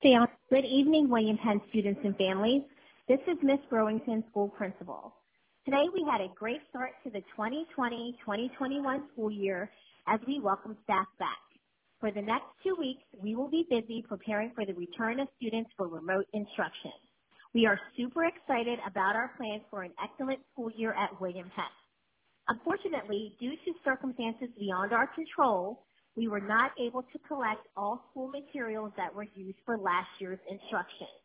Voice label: voicemail